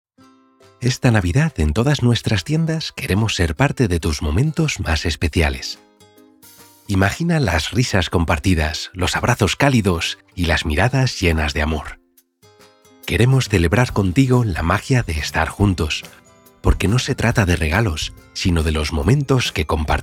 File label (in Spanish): calido, PUBLICIDAD; ¿Necesitas una voz?